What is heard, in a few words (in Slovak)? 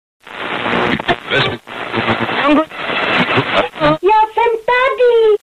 sms hlásky cz